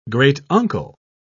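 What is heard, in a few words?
"great-uncle", British English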